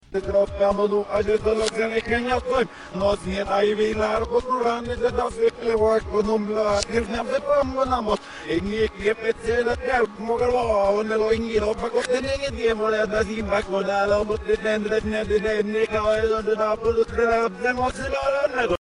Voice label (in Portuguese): fala arabe